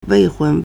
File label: "wei4hun1", Chinese